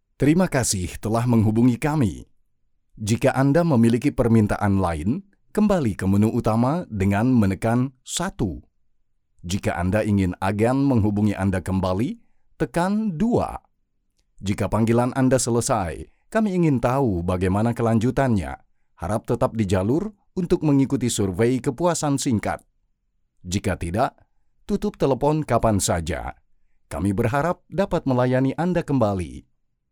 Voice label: indonesian ivr